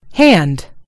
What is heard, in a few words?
"hand", en GB; gcompris